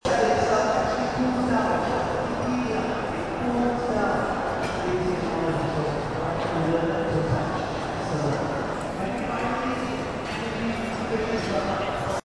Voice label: can't understand a word through his loud microphone